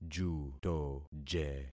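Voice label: "jutoje", concatenated syllables; Affixing experiment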